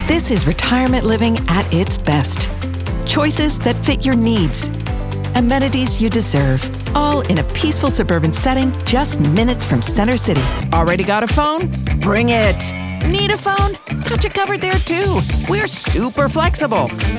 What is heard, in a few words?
Commercial